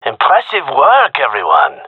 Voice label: round won, gendarmerie male; vo

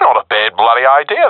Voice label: agree, professional epic; vo; Source Model Packs; Counter Strike Model Pack; CS2

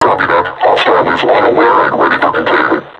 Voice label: taunt, vo combine suppressor; Half Life Alyx

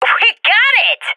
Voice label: cheer; Counter Strike Model Pack; CS:GO

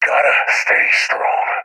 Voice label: scared; vo; agents; seal epic; Source Model Packs; Counter Strike Model Pack; CS2

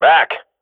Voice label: radio bot fallback, swat; Counter Strike Model Pack; CS:GO

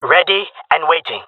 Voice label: waiting here, jungle male; player vo; Counter Strike Model Pack; CS:GO

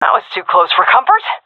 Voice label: close call, seal fem; vo; Counter Strike Model Pack; CS:GO

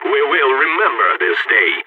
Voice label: radio bot end solid, balkan; vo

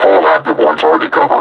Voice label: announce attack cover, combine suppressor; Half Life Alyx

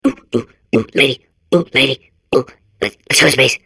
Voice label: babble; Portal 2

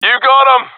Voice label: sees enemy killed, professional epic; Counter Strike Model Pack; CS:GO

